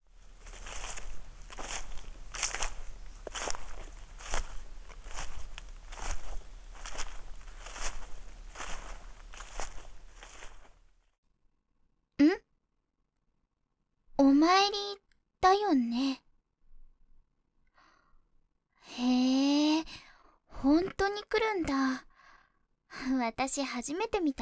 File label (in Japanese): 耳かき編, ASMR; 甘やかし巫女